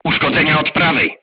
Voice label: voice message shot right, crew tanks polish

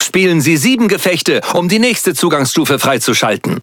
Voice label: Speech Access Level Unlock, DE; World Of Warships

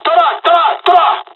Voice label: japanese first wave, dialogs french; War Thunder

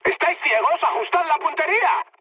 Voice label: player friendly fire, mood high, speech

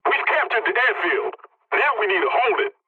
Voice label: pilot capture ground ally, english; War Thunder

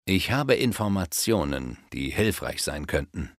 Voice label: Have Information, Speech German Advisors; Civ5

